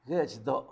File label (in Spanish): "Miahuatlán", Zapoteco Magdalena Ocotlan; Topónimos; INALI; Haspelmath